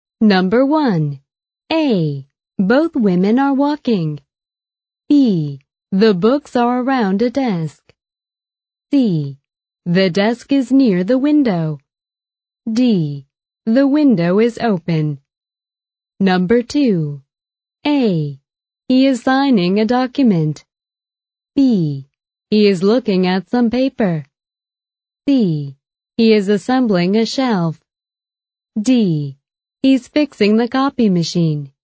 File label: American English, F